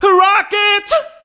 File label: "rock it", shouts; Best Service; Hyper Dance